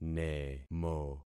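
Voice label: "nemo", concatenated syllables; Affixing experiment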